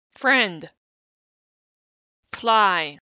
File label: f initial clusters, english phonetics